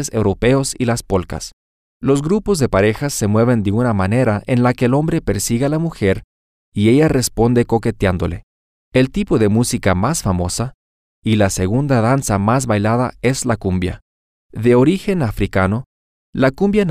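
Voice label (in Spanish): Colombia, quieres bailar, Spanish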